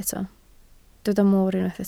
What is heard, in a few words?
"moor", Welsh